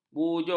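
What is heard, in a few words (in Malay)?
"bujur", Malay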